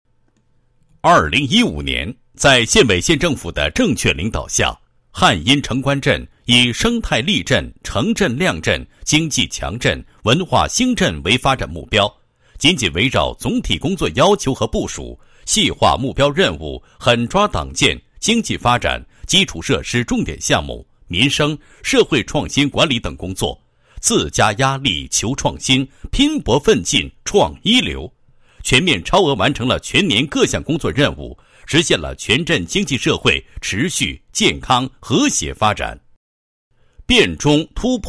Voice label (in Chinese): 男 工作汇报 工作总结汇报